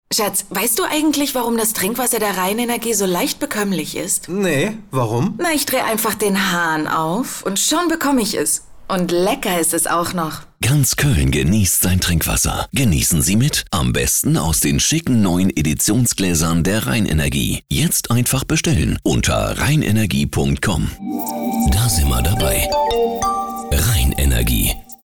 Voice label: Radio; Rheinenergie Bekoemmlich

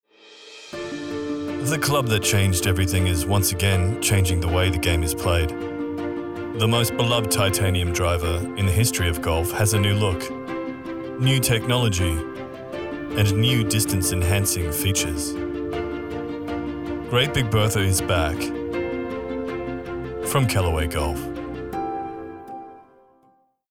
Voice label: VO COMMERCIAL; CALLAWAY

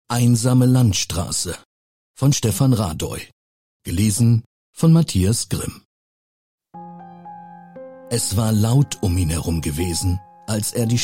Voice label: Audiobook, Audiobook (Hörbuch), German; "Einsame Landstraße" eine Kurzgeschichte

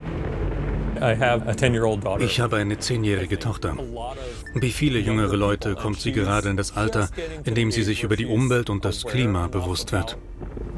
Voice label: VO Expedition Arktis

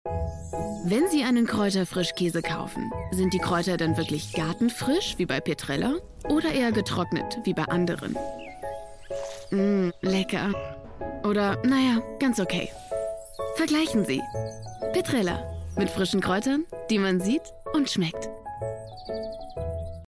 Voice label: TVC, Commercial (Werbung), German; Petrella Frischkäse

